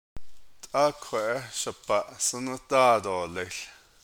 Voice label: You are to sit right here and wait for me, navajo imperative